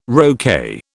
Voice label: "roquet", British